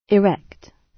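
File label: "erect", British English; Time, history; Thời gian, lịch sử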